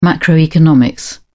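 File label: "macroeconomics", British English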